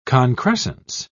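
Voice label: "concrescence", British English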